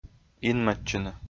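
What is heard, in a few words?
"инмӧдчыны", Komi, male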